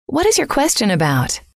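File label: what is your question about, female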